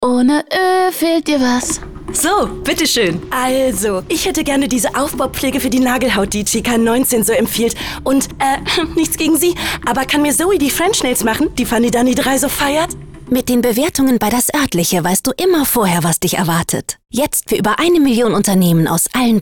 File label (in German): Radiospot; Das Oertliche